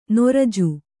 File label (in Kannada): "ನೊರಜು", Kannada